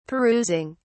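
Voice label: "perusing", British English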